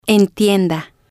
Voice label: "entienda", spanish

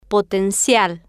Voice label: "po-ten-cial", Spanish

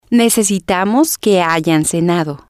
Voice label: "necesitamos Que Hayan Cenado", spanish

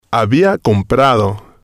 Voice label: "había comprador", Spanish

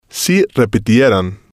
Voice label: "si Repitieran", spanish

